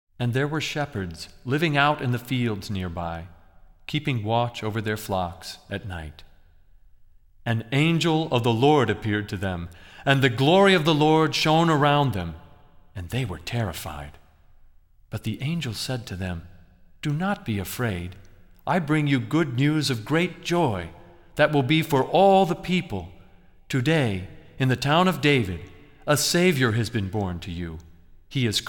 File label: Narration; Luke 2 8, 1-12